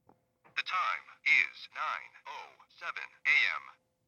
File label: Male; VocaTime